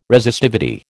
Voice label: "Resistivity", American